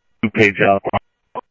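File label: Sheriff East County, scanner audio trunk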